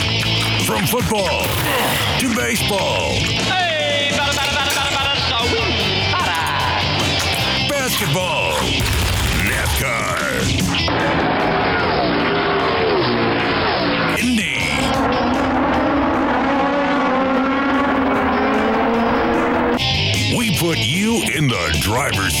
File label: Sports Imaging